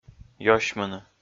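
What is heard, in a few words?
"ёсьмыны", Komi, male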